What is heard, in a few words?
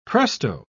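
"presto", British English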